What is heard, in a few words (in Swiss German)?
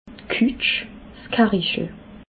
"kutsch", alsace